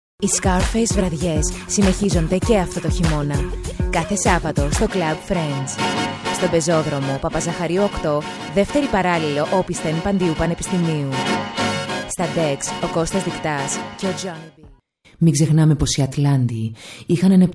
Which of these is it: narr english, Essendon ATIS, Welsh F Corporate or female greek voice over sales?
female greek voice over sales